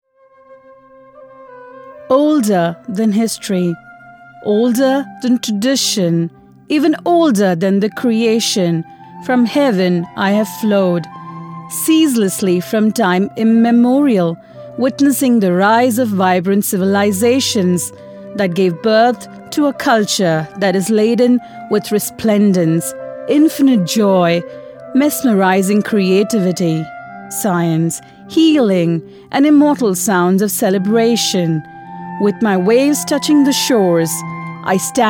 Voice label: Docu Ganga Eng